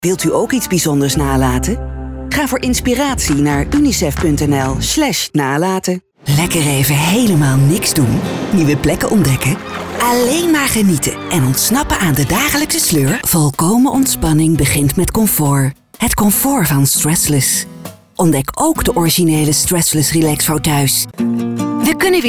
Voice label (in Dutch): compilatie nationale commercials